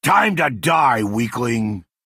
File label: Orc male taunt